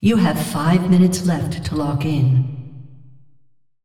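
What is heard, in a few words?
announcer female, pt br; Clash; tournaments lockin 5min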